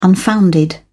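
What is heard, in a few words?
"unfounded", English, uk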